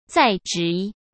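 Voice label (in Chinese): "在职", Mandarin Chinese; chinese flashcards; hsk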